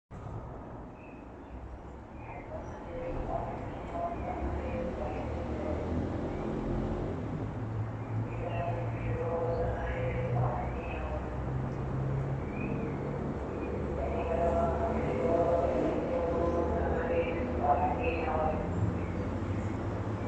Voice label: Tamales Oaxaqueños Mexican street food vendor